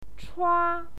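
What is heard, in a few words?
"chua", Mandarin Chinese, first tone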